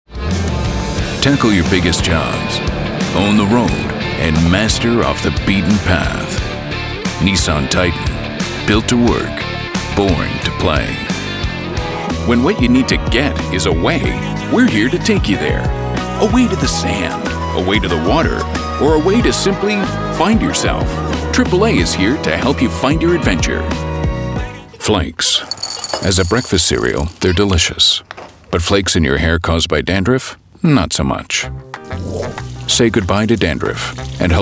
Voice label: Commercial

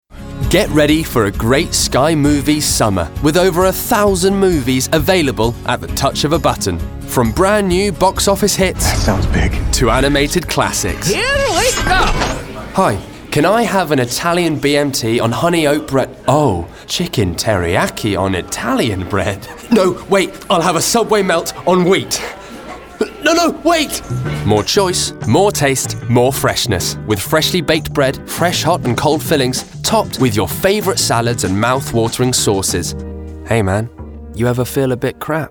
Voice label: Commercial

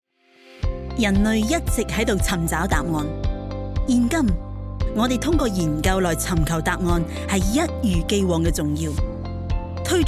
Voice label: Cantonese corporate voice

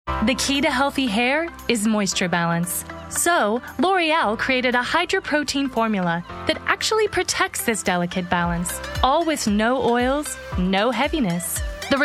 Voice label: Commercial

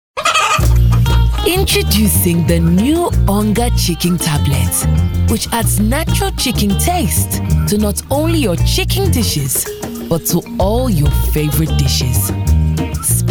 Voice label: Accent reel

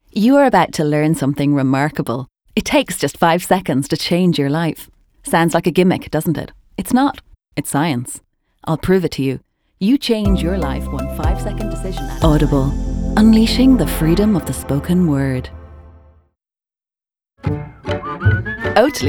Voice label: Commercial

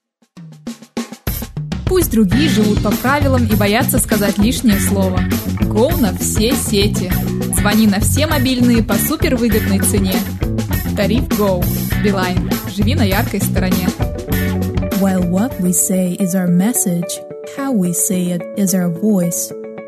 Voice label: Russian Showreel

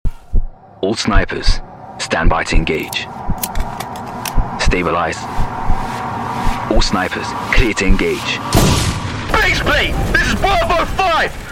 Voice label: Video Game Reel

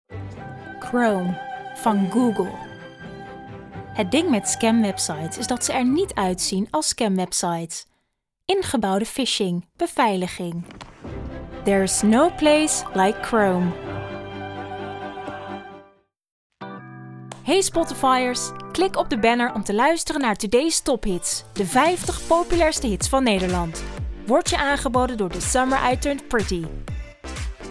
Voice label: Dutch Commercial Voicereel

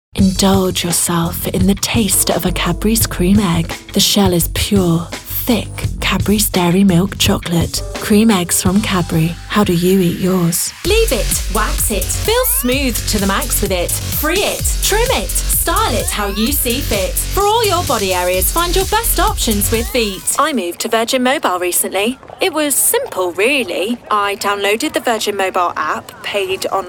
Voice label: Commercial

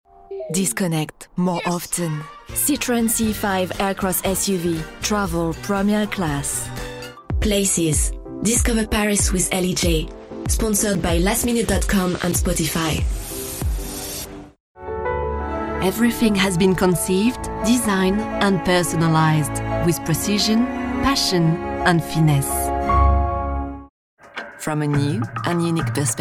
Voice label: EN Voicereel

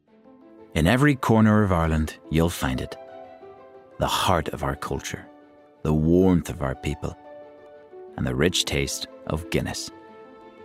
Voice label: Northern Irish Voice

